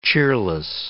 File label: "cheerless", English